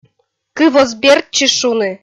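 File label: "кыв оз бертчы шуны", Komi, female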